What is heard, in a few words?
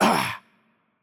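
Hurt Light, Greek; el GR; VO; bloom minigame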